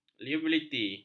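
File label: "liabiliti", Malay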